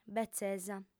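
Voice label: "betzesa", female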